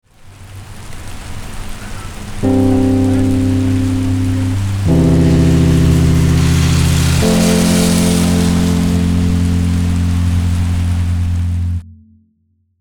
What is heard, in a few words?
voicemail